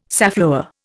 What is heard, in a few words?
"safflower", Indian